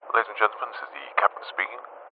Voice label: transport aircraft pilot says ladies and gentlemen this is the captain speaking